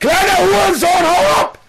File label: angry; spackenmobs